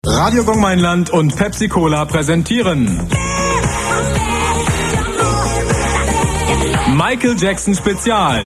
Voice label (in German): Radio Gong Promo